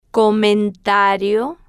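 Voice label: "comentario", slow, female, Spanish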